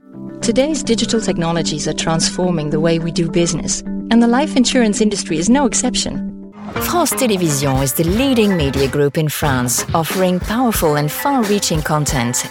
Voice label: Neutral ENGLISH